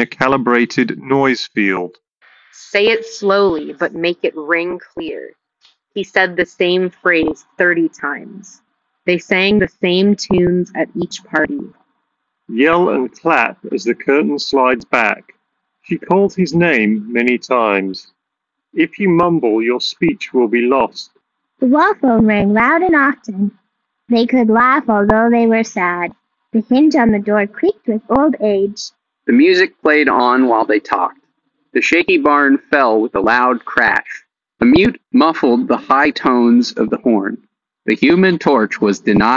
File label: CMF BUDS 2 PLUS street